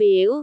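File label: "fiu2", Cantonese; googleA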